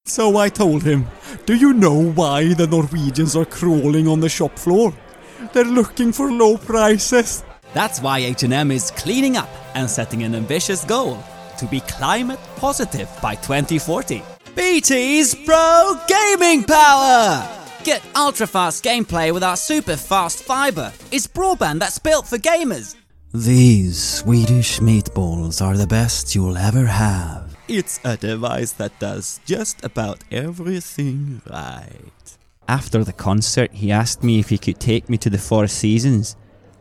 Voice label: Commercial

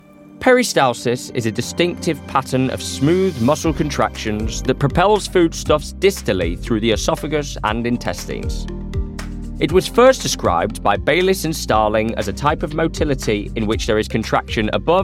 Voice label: Corporate

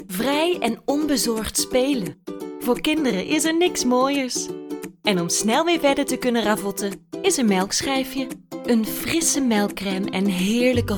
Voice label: Dutch

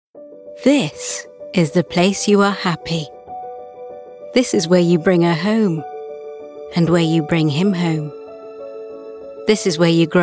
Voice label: commercials

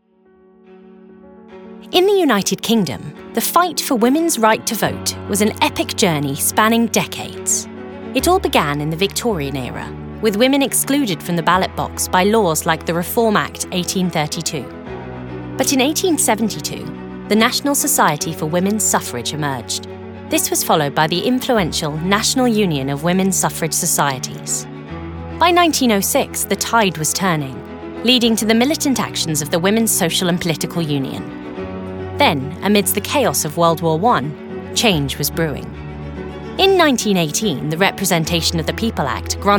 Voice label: Documentary; home studios

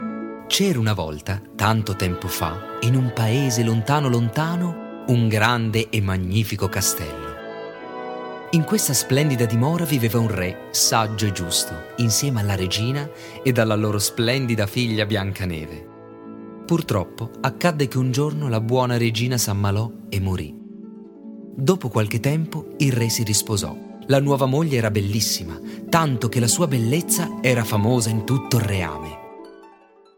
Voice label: Italian

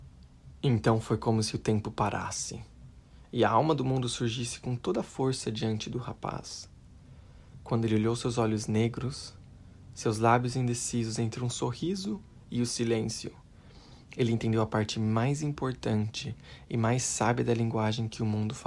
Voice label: Portuguese

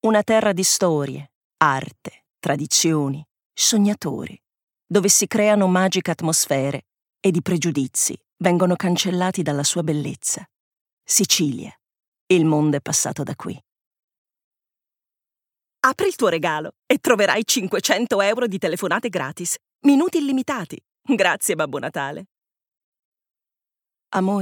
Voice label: Italian

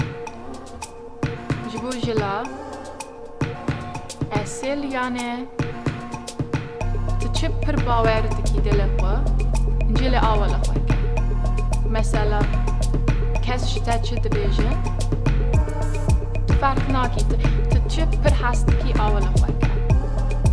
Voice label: Kurdish, female

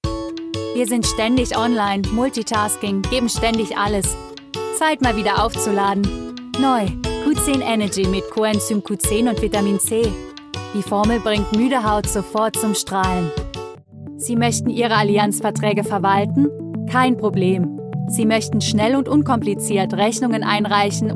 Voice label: German

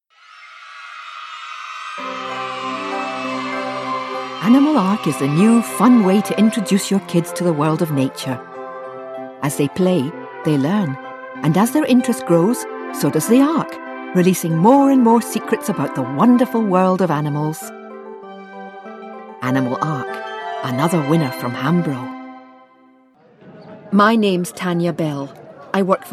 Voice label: Scottish

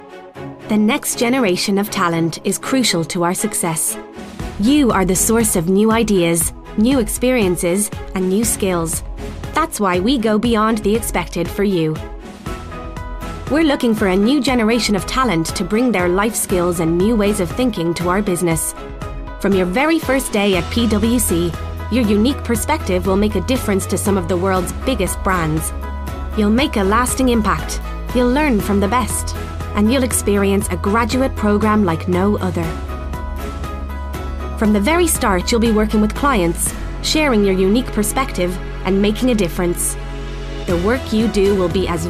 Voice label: Corporate

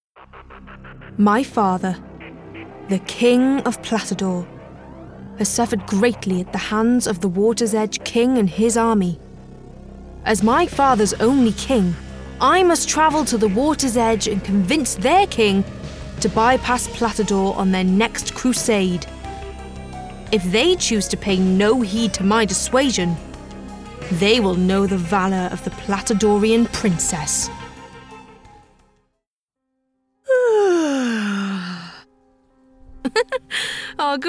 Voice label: Computer Game, regional accents

